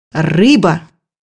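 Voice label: "fish", Russian; bukvar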